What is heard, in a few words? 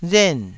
"ren4", Taiwanese